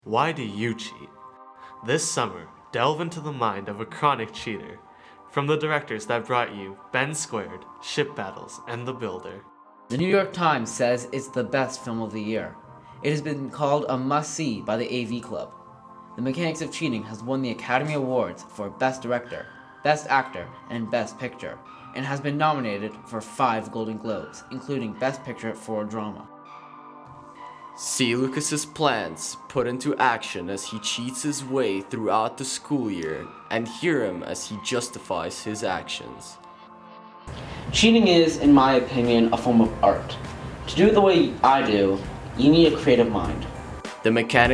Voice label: Radio Ad